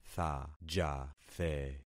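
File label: "thajathe", concatenated syllables; Affixing experiment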